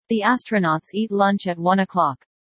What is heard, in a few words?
Zoe fast